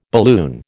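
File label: "balloon", American English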